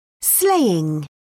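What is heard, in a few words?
"slaying", British English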